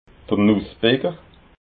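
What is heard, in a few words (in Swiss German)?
"nussbicker", Alsatian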